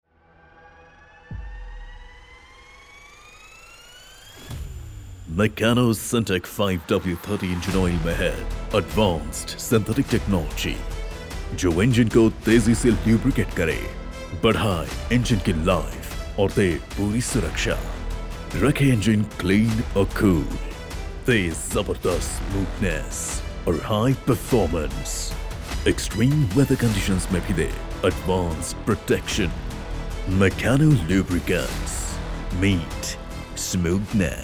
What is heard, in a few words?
Hindi Advertisement